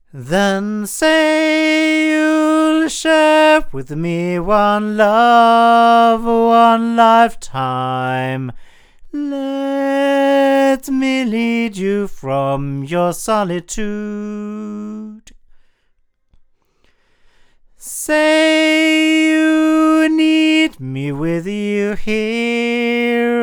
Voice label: CV-28 cardioid male; Avant